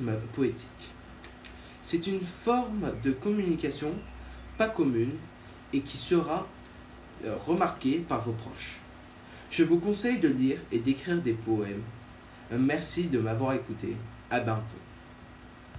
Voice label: radio sonnet